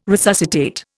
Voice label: "resuscitate", Indian